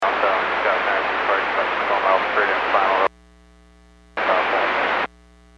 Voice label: Aviation 122.7; KSTE